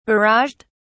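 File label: "barraged", British English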